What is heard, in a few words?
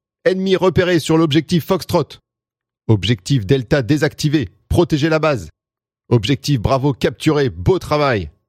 french video games